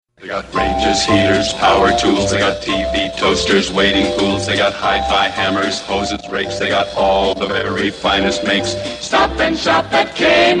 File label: Commercial; Kmart; 1965